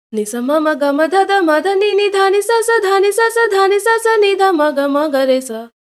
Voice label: voice, timestretch